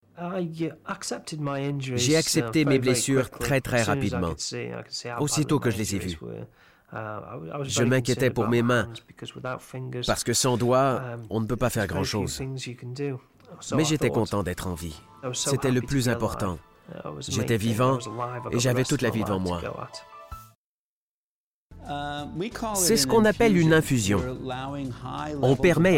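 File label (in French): surimpression vocale francais normatif doux rassurant explicatif; sobre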